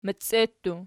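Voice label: "meccie", plural, Archi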